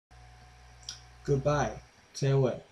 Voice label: Goodbye China Shanghainese

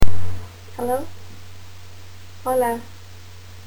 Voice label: Hello Spanish

